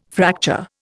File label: "fracture", Indian